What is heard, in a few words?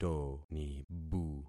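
"tonibu", concatenated syllables; Affixing experiment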